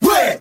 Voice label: "reh", group of men; ooh ahh